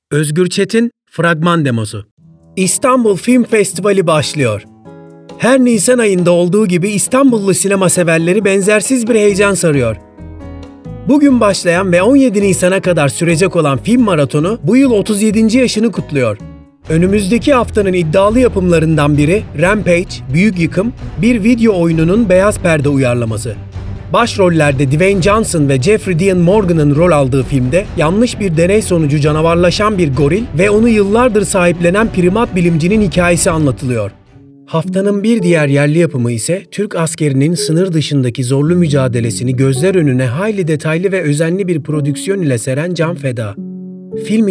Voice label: Trailer Turkish